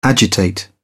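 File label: "agitate", British English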